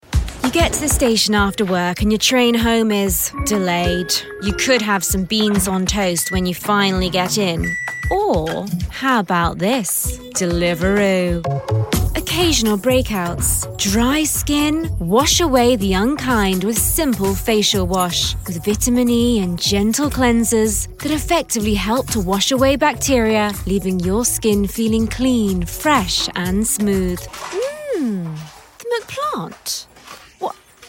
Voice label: Commercials